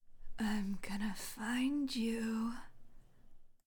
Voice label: Female I M Gonna Find You